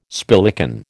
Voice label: "spillikin", American

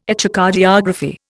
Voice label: "Echocardiography", Indian

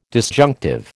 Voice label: "disjunctive", American

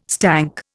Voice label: "stank", Indian

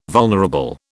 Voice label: "vulnerable", British

